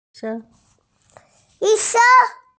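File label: "yi1sheng1", Mandarin Chinese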